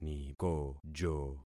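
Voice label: "nikojo", concatenated syllables; Affixing experiment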